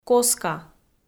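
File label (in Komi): "коска", Komi-Zyrian, female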